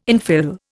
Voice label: "infill", Indian